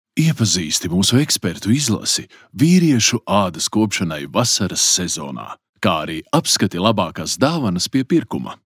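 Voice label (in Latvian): Reklāma Latvian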